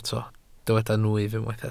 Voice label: "nwyf", welsh